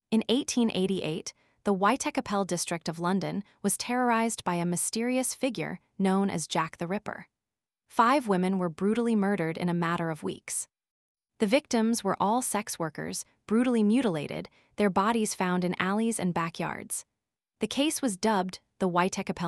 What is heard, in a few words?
voiceover en; Who was Jack the Ripper